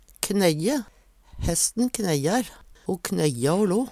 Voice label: knejje, Numedalsmål; Verb; Hesten knejjar. Ho knejja o lo.

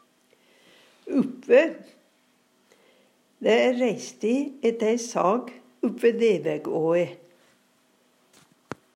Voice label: uppve, Numedalsmål; oppe ved, oppe med; Preposisjon

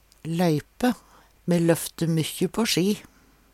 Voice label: loype, Numedalsmål; Verb

